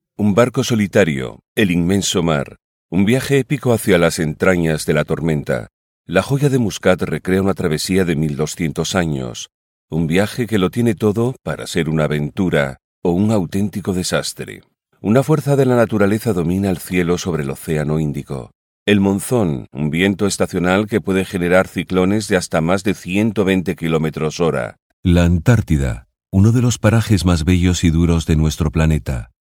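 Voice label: es documentary, spansk europa mann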